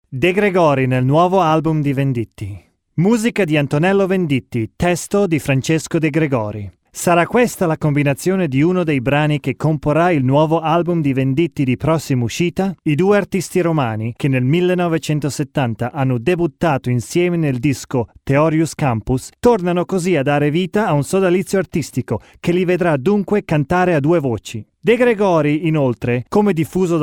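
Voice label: Italian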